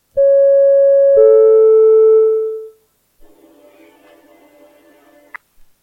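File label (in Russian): объявление поезда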